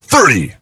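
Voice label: "Thirty", English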